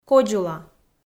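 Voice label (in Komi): "кодзула", Komi, female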